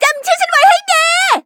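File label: hit, Thai; cookie run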